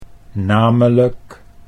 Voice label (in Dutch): "namelijk", Dutch